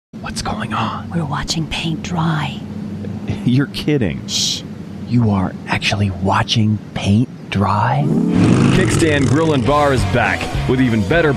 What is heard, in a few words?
Voice Actor, male voice overs; Los Angeles, California